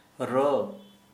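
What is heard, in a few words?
"fly", Santali, male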